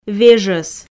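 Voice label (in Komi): "вежӧс", Komi, female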